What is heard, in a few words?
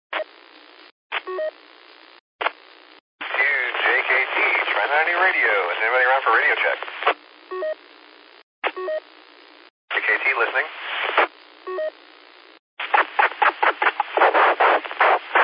qso rec simplex logic